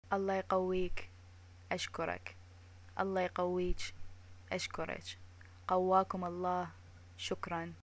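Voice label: greeting death, Iraqi Arabic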